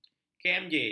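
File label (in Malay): "kmj", Malay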